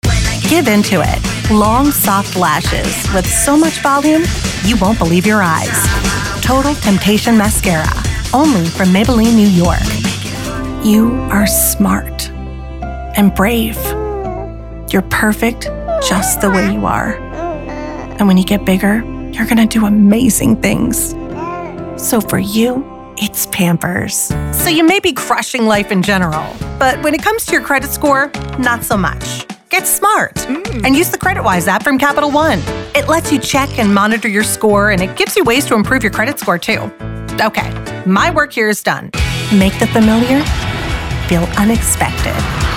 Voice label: Commercials

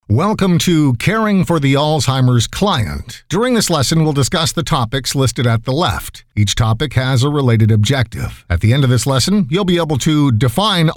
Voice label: Elearning

